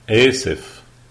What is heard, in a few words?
sorry lebanese